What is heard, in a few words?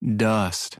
slow dust, American; American IPA phonic